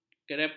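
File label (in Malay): "kerepot", Malay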